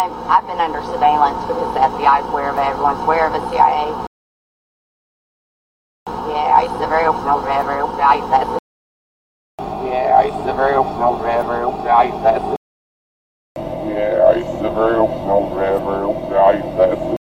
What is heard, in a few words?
Female in Moody, AL; The Ai surveil. Program real, the iPad.